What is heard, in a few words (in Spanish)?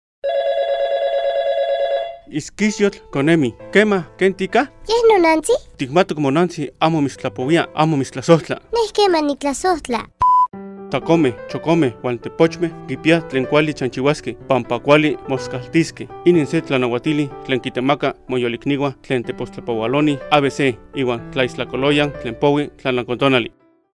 Lengua Nahuatl de la Sierra de Zongolica; Derechos niñas y niños